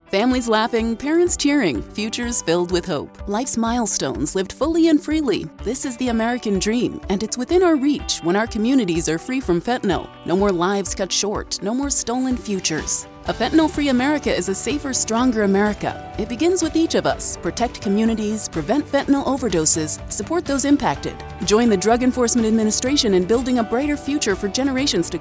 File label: Radio Music